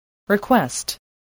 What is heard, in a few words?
"request", English